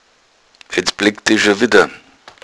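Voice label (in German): "blegga", Bavarian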